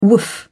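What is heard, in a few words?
"woof", British English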